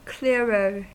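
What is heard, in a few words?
"clearer", US English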